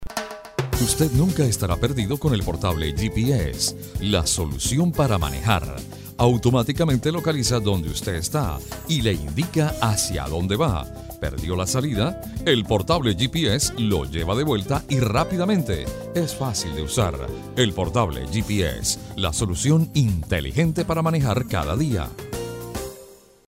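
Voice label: Spanish Latin America male voice over, sales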